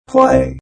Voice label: Robot Voice "Play"